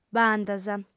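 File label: "b'andas", female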